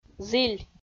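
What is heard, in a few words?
"зіль", Komi, female; kpv; audio dict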